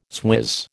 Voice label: "swizz", American